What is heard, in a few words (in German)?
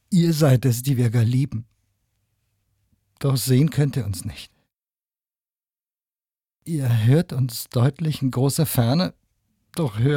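traurig, Männer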